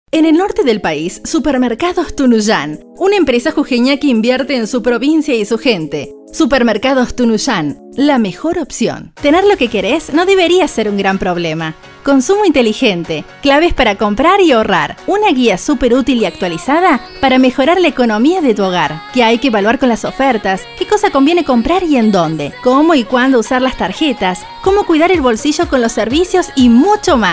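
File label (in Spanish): mujer español latino promocional con musica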